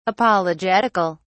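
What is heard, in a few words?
"apologetical", British English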